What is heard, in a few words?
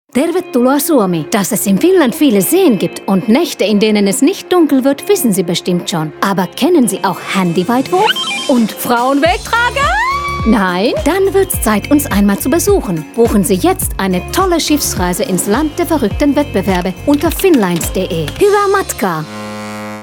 female finnish german with finnish accent radio commercial; finnlines; Devine Voices